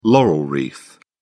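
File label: "laurel wreath", British English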